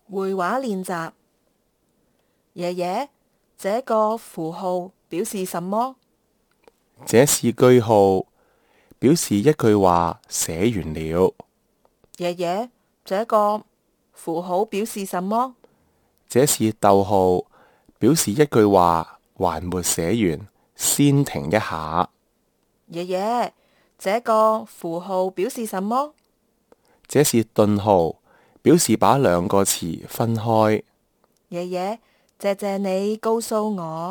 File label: conversation, Kantonese